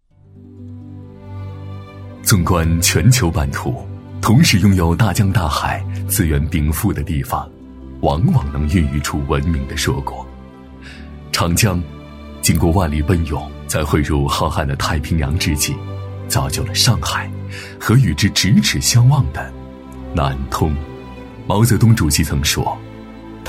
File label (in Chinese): 男 专题 学院; 男B3-磁性稳重 舒缓抒情